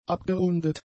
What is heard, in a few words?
"abgerundet", German; adjectives